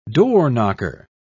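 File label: "doorknocker", British English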